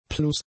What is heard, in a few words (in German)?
"plus", German; prepositions